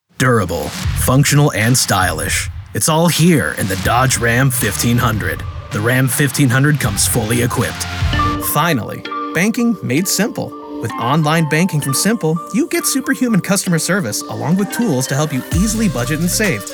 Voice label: Commercial